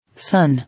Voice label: "Son", English